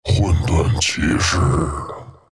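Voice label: spawn, Chinese; Chaknight; Dota 2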